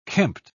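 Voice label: "kempt", English, British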